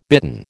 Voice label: "bitten", American

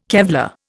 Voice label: "Kevlar", Indian

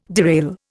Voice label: "derail", Indian

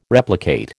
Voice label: "replicate", American

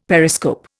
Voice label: "periscope", Indian; Dictionary

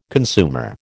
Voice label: "consumer", American

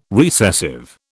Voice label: "recessive", British

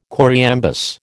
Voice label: "choriambus", American

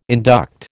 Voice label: "induct", American

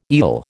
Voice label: "eel", American

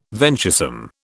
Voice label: "venturesome", British

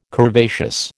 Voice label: "curvaceous", American

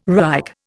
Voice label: "Reich", Indian

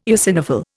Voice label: "eosinophil", Indian

